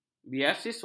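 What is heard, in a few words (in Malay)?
"biasiswa", Malay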